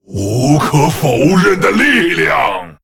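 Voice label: Male, Chinese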